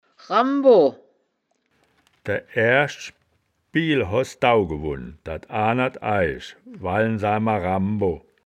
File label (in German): "rambo", Wittlicher; das wittlicher woerterbuch; woerterbuch vertonung